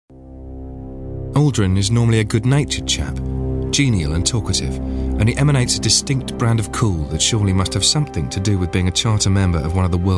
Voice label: Documentary

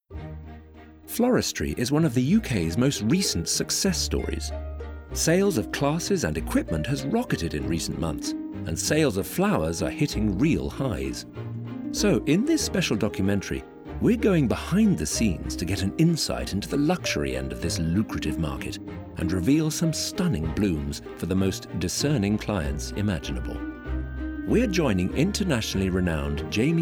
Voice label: Narrative; Billionaire Blooms

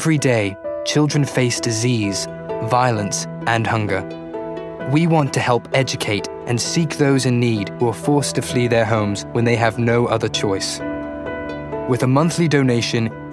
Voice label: UNICEF - RP

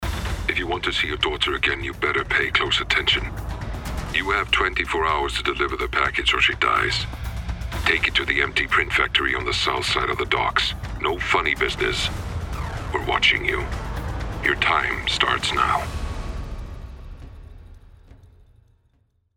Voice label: Voicetape Gaming Phone Effect; Kidknapper

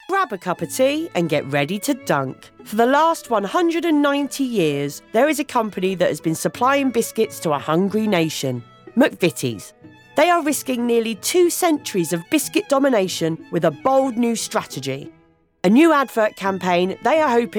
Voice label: Voicereel Longform

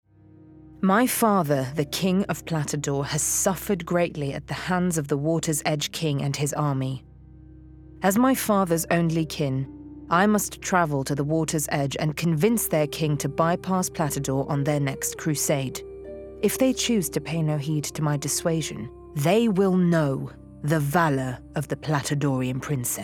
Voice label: Character

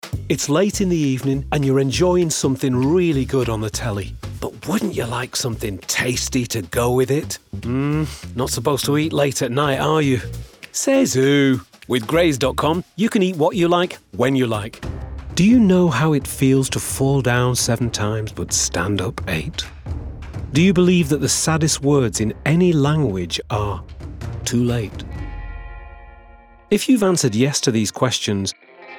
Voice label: Voicetape Compilation Commercial

